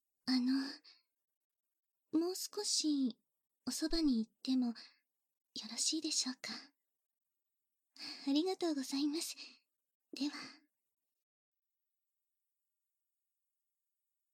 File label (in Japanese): 添い寝 効果音あり大きめ; ＳＥＱ編集; バイノーラルマイク収録